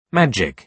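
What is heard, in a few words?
"magic", British English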